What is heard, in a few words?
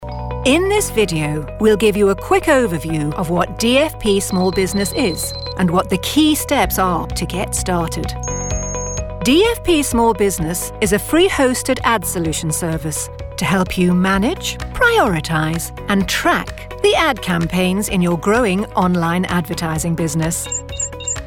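English British, female, e-learning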